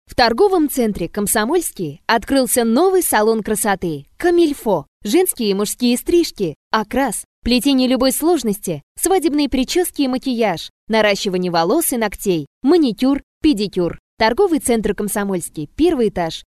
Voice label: RU F Reclama radio